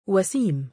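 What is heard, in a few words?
"wasiim", Arabic, male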